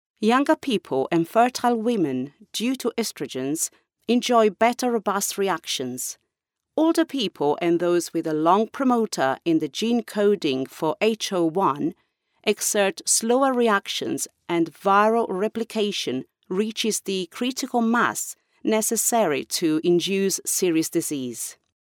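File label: Italian female voice over, English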